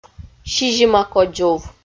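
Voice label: "сизима кодзув", Komi, female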